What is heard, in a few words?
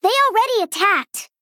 Female Gnome ERROR